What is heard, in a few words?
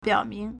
"biao3ming2", Chinese